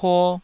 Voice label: "puo2", taiwanese